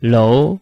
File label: "lou2", Chinese